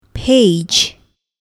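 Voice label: "page", English; smartkindertech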